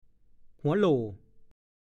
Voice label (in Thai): "หัวโหล่", ภาษาโคราช; ส่วนของยอดเขาที่ยื่นออกไป ชะโงกเขา